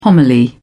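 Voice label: "homily", English, British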